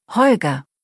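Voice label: "Holger", German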